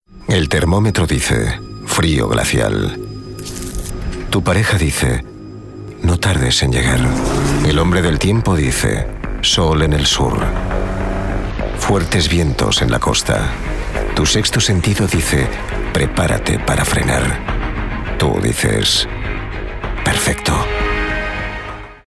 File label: male spanish car comercial; ideasonora